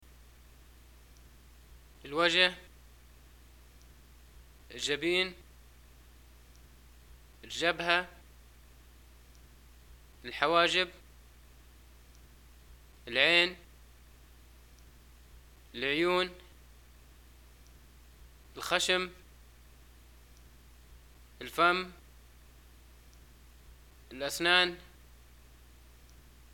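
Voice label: face parts, Libyan arabic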